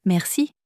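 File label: "merci", French, female; flashcards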